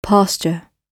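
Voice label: "pasture", British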